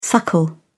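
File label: "suckle", British English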